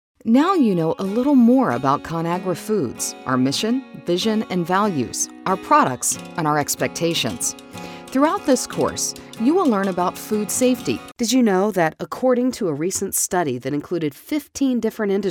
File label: Narration